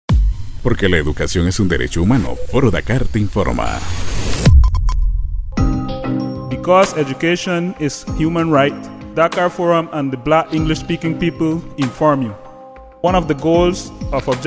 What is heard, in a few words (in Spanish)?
Spot en Ingles; Foro Dakar